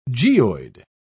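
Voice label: "geoid", British English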